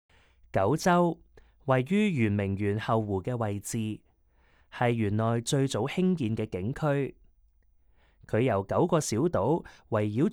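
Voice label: Cantonese, audio guide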